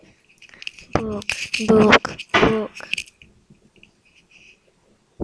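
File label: "book", spoken word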